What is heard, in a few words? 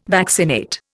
"vaccinate", Indian